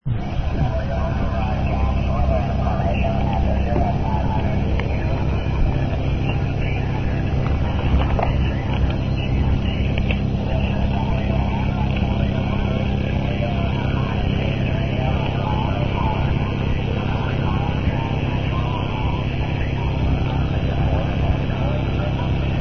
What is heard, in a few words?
usb poor